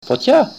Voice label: "fire", Greek

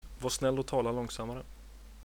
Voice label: slowly, swedish

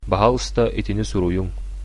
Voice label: write, sakha

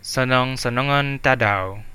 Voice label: have a nice day, Central Dusun

